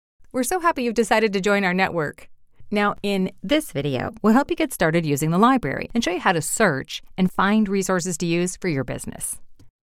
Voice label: Tutorial Approachable Enthusiastic